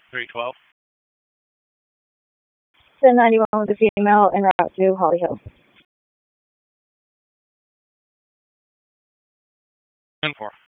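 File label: Police Dispatch, p25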